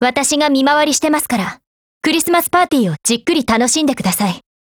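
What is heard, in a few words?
Japanese; Christmas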